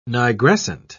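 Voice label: "nigrescent", British English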